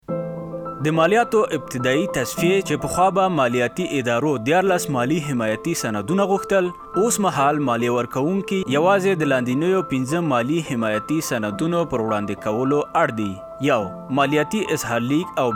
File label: Pashto; ARD